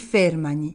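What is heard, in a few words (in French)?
"fermani", Corsican, Gravona (sud); ils/elles restent; Corse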